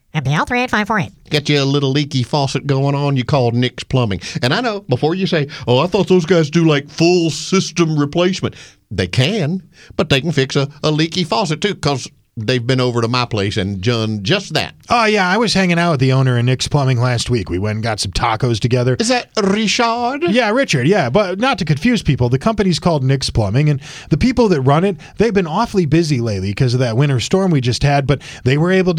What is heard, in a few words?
RADIO AD; nicks plumbing radio ads